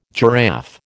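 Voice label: "giraffe", American English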